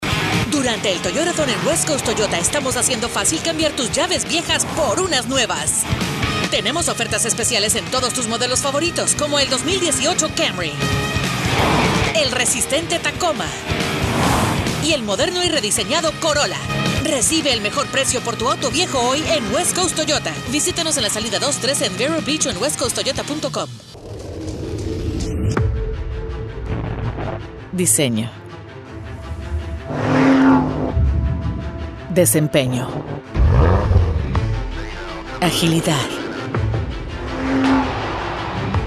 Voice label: Spanish Latin American others